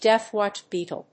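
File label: "deathwatch beetle", English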